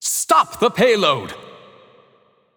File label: Match Role Defend, Town Crier